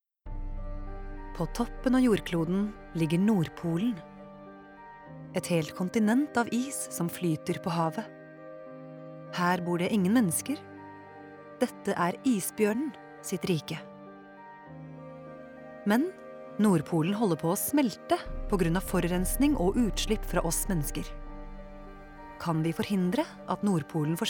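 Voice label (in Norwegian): dokumentar, Norwegian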